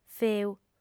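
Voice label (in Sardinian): "feu", female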